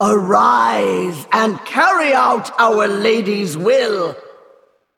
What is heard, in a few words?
Female Val'kyr Start